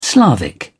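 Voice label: "slavic", British English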